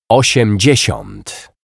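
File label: "osiemdziesiat", Polish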